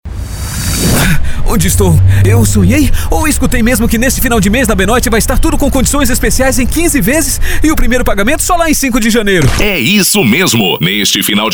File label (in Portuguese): loc masc, Locutor Publicitário; Spot Institucional, Promocional, Humorístico, Educativo e Político; Criativa Áudio Produtora